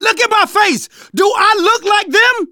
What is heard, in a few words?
friendly fire, manager, survivor, voice; Left 4 Dead 2; left4dead2 dlc2